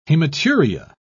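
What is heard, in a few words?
"hematuria", British English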